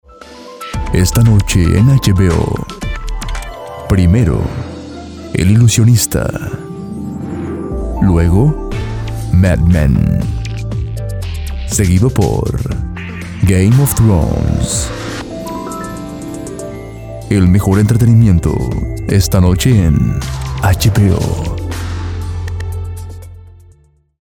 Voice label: Bumper; HBO; THEVOICEREALM

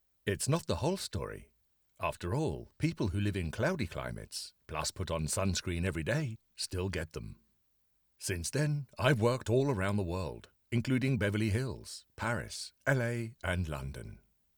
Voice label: Dry Studio Sample, Start

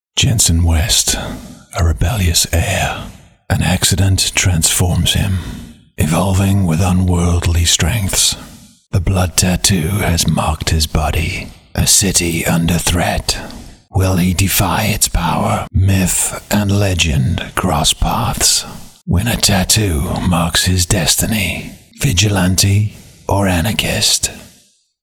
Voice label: Movie Trailer; Blood Tattoo

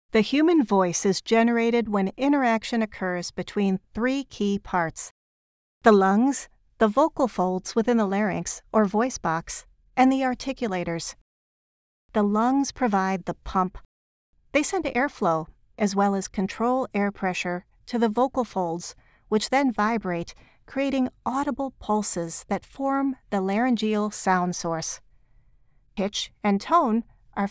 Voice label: Medical E-Learning

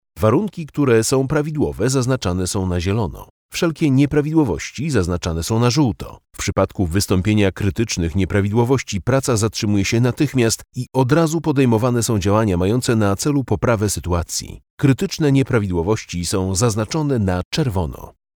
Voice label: dry voice

